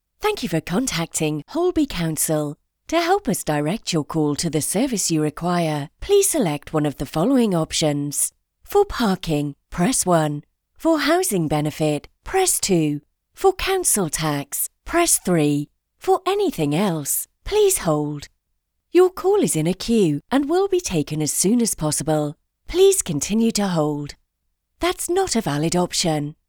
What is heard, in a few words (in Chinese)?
外籍英女 电话应答